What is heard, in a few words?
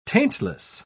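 "taintless", British English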